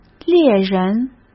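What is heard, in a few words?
"猎人", Mandarin Chinese; hsk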